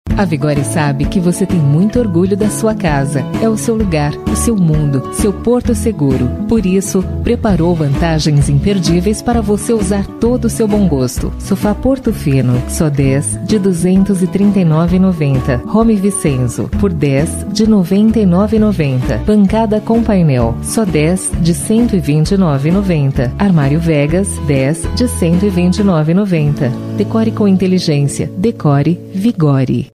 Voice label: Brazilian Portuguese, Brazilian Portuguese Female

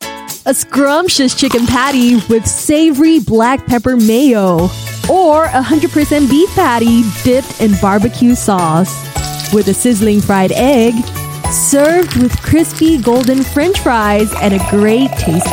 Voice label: Asian English Bright; McDonalds

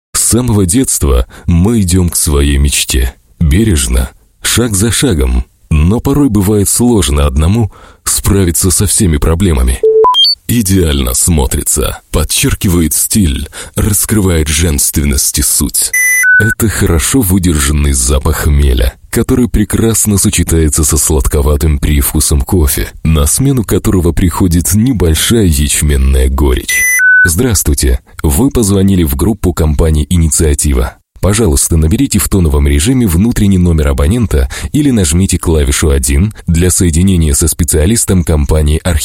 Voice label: Russian, Male

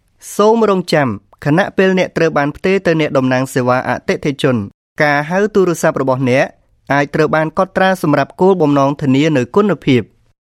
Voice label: Khmer Male IVR

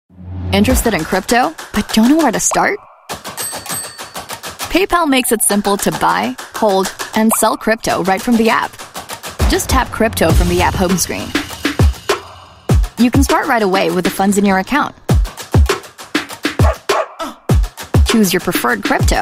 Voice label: Filipino; PayPal Crypto

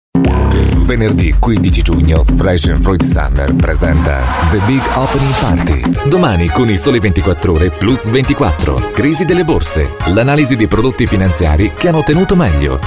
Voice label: Italian

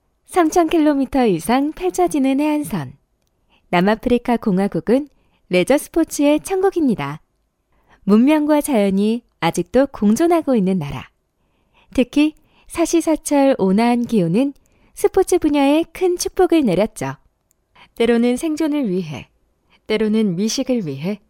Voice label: Korean Female

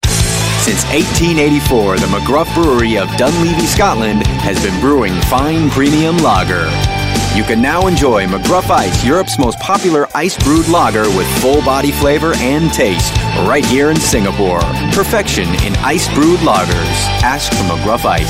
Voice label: US English Male; McGruff Ice

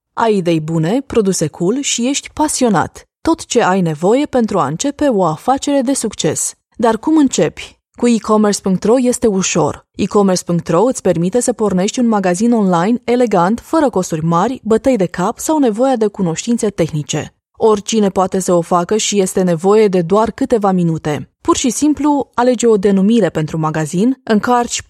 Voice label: Romanian Internet Commercial, Female

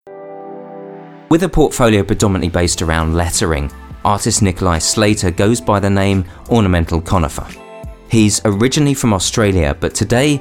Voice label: UK English Jeans; Lee Jeans

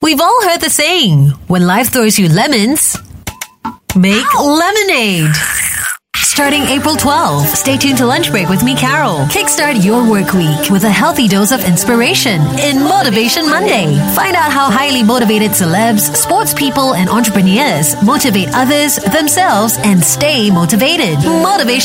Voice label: Asian English Trailer

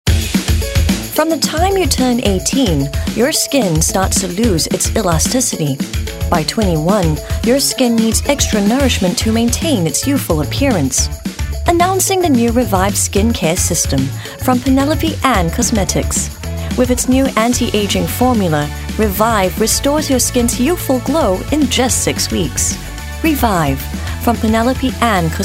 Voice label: Asian English Skin Care

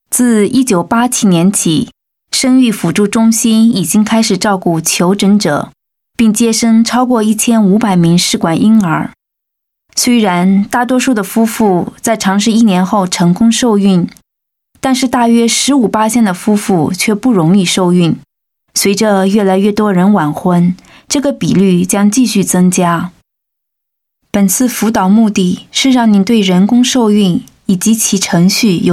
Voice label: Mandarin

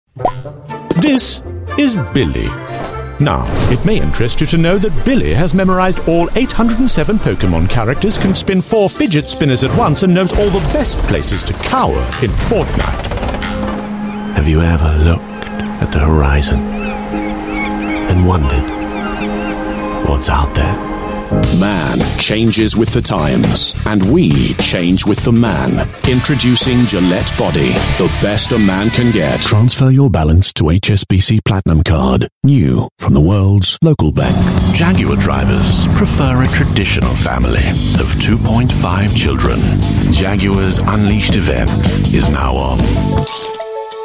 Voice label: UK English; Voice Reel

